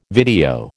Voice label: "video", American